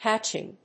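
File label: "hatching", English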